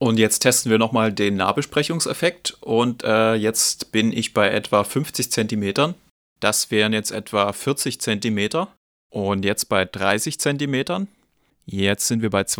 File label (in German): Nahbesprechung; shure beta 58a